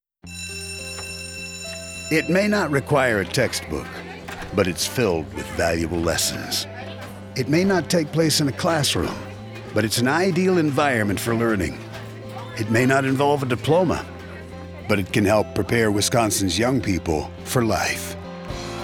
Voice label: audio spot